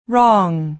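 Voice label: "wrong", American English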